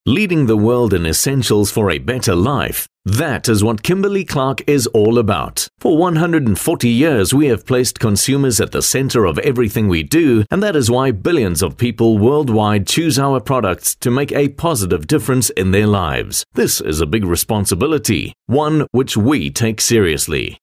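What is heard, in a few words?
English South African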